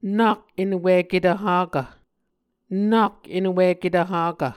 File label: "nak enwiketv hakv", Muscogee